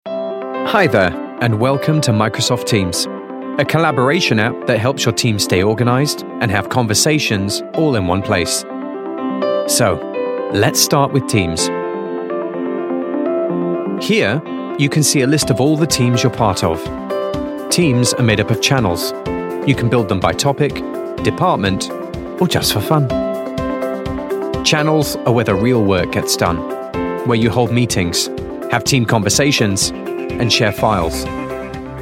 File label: e-learning